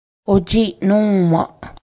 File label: bug, Cayuga; ojiˀnǫ́:waˀ